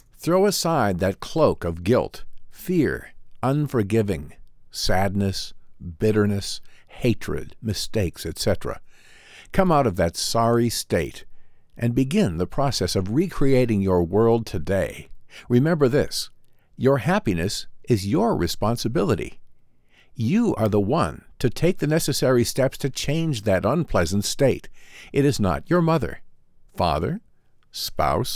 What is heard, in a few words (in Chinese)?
外籍英男-电子书-鼓舞人心